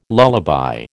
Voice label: "lullaby", American

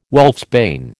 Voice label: "wolfsbane", American

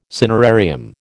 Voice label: "cinerarium", American

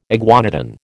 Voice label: "iguanodon", American

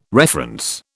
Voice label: "reference", British

